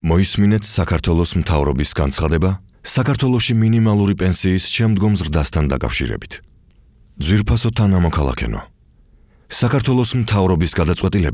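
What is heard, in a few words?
georgian male narration; voice demos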